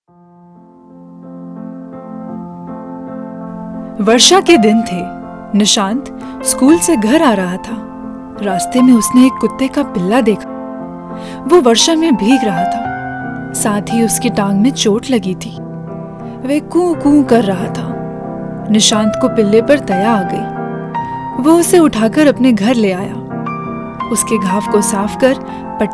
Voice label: story, Hindi